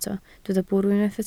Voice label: "bwrw", Welsh

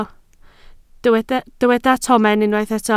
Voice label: "tomen", Welsh

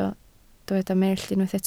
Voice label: "mellt", Welsh

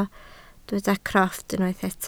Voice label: "crofft", Welsh

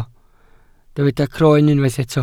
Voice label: "croen", welsh

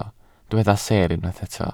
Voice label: "seer", Welsh

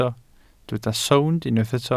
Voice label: "sownd", Welsh

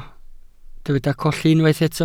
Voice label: "colli", Welsh

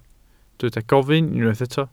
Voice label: "gofyn", Welsh

